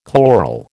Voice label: "chloral", American